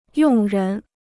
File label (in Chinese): "用人", Chinese